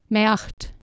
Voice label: "meaht", Anglo Saxon